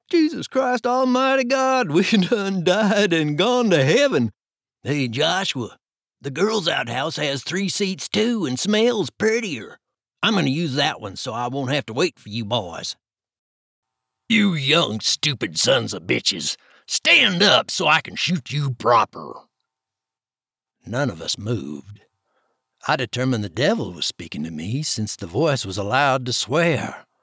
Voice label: common southern